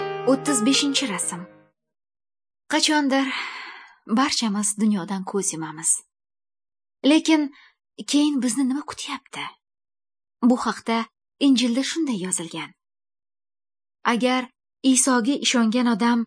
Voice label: good news female uzbek northern